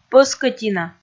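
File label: "пӧскӧтина", Komi, female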